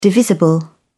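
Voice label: "divisible", British English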